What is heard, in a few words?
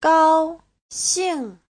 "gao1 xing4", chinese